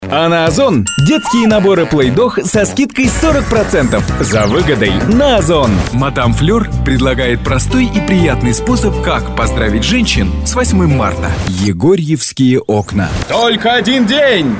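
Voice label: Russian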